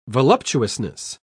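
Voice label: "voluptuousness", British English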